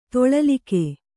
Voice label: "ತೊಳಲಿಕೆ", Kannada